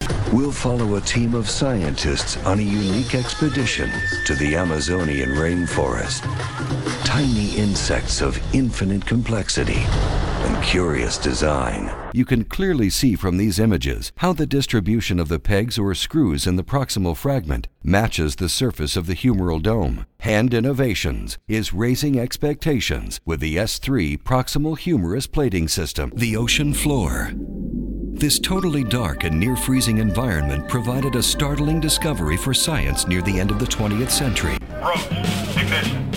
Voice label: NARRATION DEMO